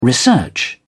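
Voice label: "research", British English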